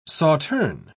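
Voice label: "sauterne", English, British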